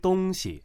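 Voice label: "dong xi", Chinese, tone 1 and neutral tone, male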